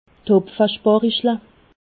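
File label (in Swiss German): "hopfesparichle", Alsatian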